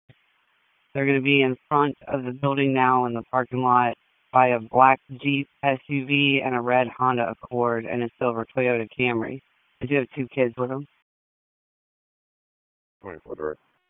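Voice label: Police Northeast District Dispatch, p25; Raleigh; wake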